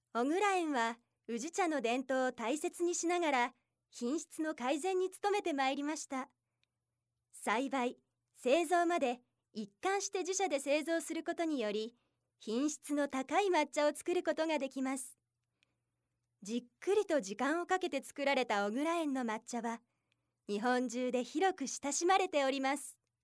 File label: narration